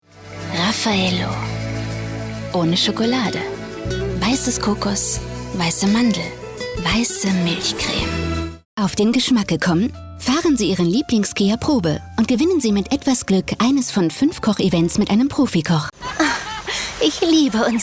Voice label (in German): Werbemix